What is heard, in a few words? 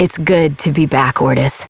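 Voice, English; New War; Orbiter; Operator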